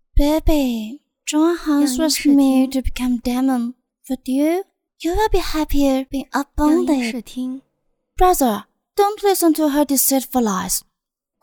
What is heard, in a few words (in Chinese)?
女 英 信息流 撒娇